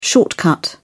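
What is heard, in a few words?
"shortcut", British English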